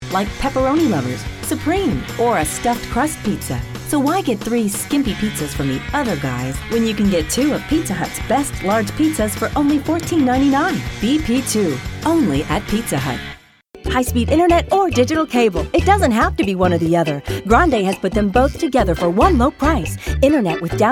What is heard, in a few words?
English, females